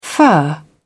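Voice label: "fur", British English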